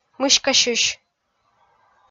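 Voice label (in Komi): "мыськасьысь", Komi, female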